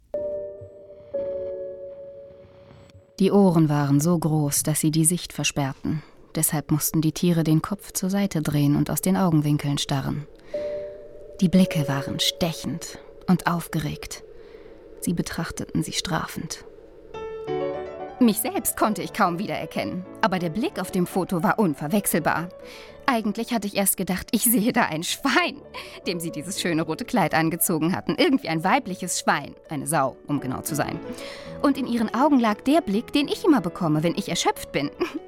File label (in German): hoerbuch